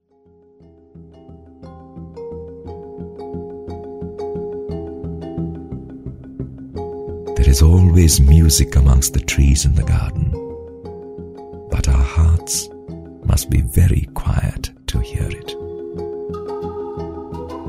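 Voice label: English, male voice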